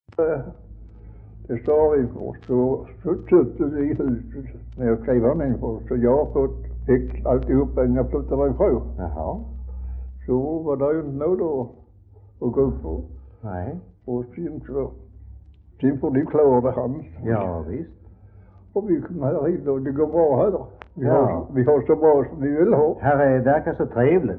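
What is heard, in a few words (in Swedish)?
Västra Ingelstad Skåne, dialekter; Folkminnen; Svenska sagor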